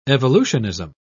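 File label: "evolutionism", British English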